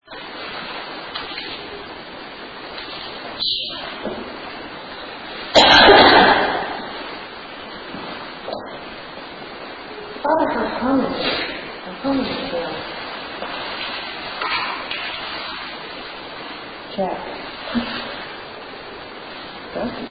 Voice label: Opera House Stage CHILDS VOICE

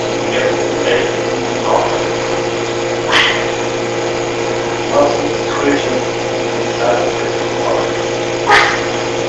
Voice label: Basement Unexplained Whisper (What) Amplified

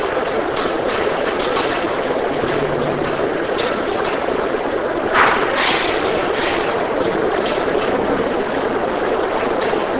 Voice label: Kids Room Unexplained inaudible whisper Amplified; TrunerHome; 2nd Floor

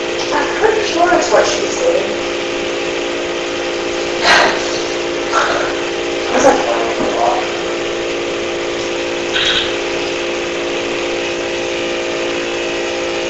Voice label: Basement Unexplained Whisper (Yes) Amplified

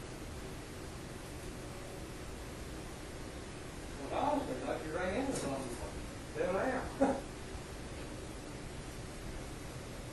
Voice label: Town Hall Lobby whisper; Case 013 Pocahontas

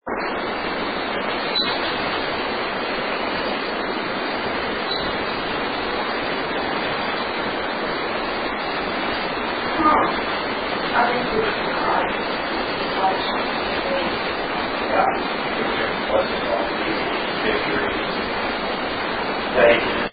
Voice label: girls voice hey; Case 014 Henderson Home EVP

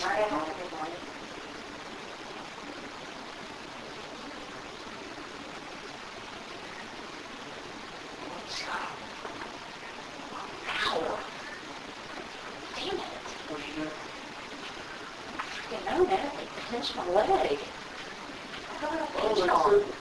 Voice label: stop males voice; Case 007 Webb Home Girls Room

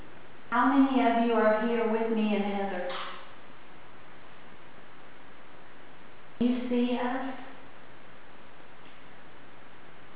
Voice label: Unexplained whisper; CASE 023 MAJOR GRAHAM MANSION

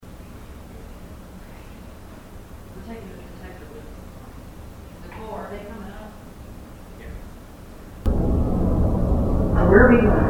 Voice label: Theatre Balcony, Female whisper

